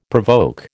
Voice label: "provoke", American

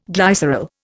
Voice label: "glycerol", Indian

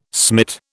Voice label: "smit", British

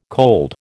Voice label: "cold", American

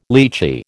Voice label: "litchi", American

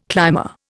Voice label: "climber", Indian

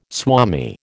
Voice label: "swami", American

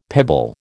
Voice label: "pebble", American

